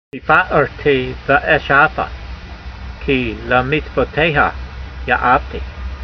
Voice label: voice, hebrew language; Psalm 119:131; pee-fa'artee va'esh'a'fa, kee lemeetsvoteyha ya'avtee